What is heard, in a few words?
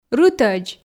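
"рытӧдз", Komi, female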